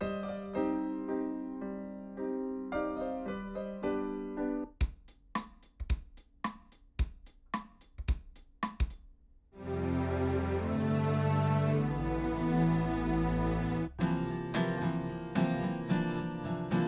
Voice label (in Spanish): AKG C414 XLII omni